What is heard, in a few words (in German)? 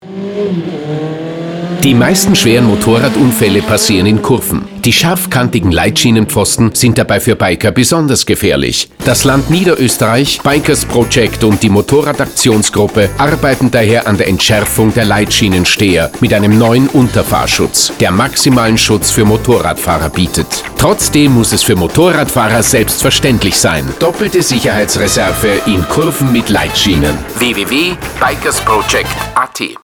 radiospot leitschienen; bikersproject